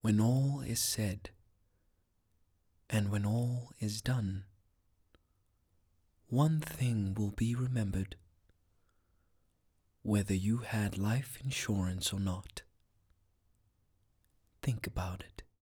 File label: soft sell